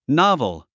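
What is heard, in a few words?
"novel", English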